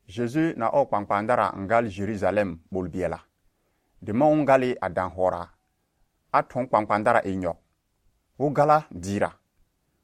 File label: Lobiri; Jeju n lon Jerujalɛm; Triumphal Entry